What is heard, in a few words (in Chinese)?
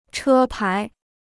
"车牌", Chinese